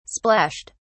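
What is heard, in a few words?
"splashed", British English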